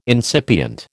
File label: "incipient", American